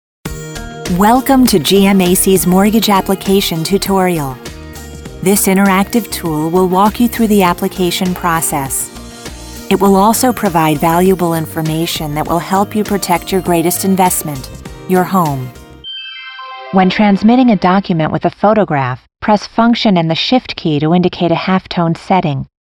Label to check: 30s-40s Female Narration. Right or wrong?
Right